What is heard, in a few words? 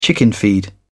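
"chickenfeed", British English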